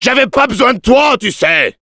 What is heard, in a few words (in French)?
special completed assisted kill, fr; Demoman